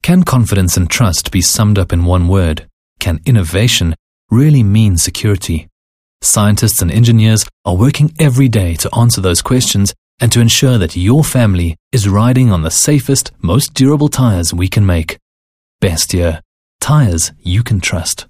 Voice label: English Corporate